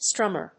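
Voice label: "strummer", English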